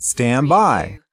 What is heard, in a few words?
US male stand by; lynne publishing